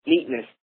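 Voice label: "neatness", English, American accent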